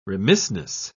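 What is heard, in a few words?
"remissness", British English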